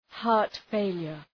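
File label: "heart failure", English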